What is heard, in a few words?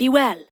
Ost Fem en; Cetus